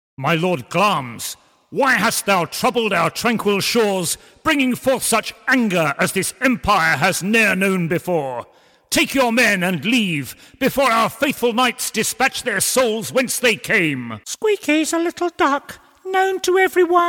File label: Character Reel